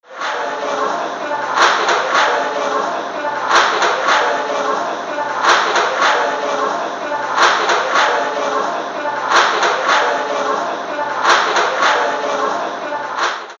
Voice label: Cantonese U look like Prince